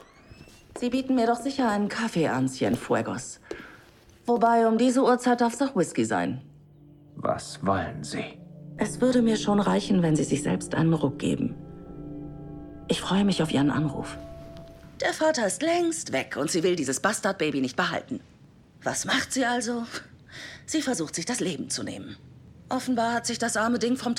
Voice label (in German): Synchron souveraen dynamisch